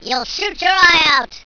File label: youll shoot your eye out, squeaky, attack; tactics